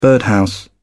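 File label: "birdhouse", British English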